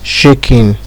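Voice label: "shaking", Nigerian Pidgin, male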